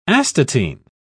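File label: "astatine", British English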